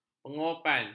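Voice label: "pengewapan", Malay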